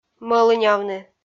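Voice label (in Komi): "мӧлынявны", Komi, female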